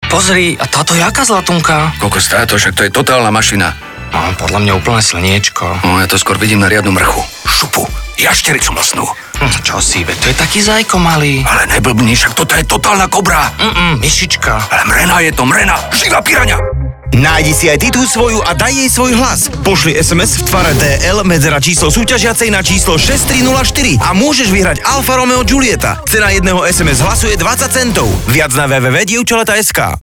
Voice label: radio